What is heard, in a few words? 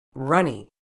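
"runny", medical English, British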